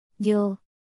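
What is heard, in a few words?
"뇨", Korean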